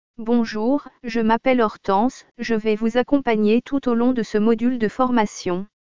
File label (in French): Synthèse vocale